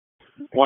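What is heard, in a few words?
Sheriff's Office Dispatch; WSO DISP 1; wake; p25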